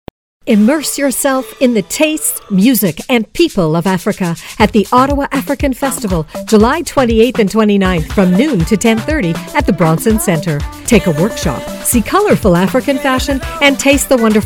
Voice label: Commercial